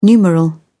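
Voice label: "numeral", British English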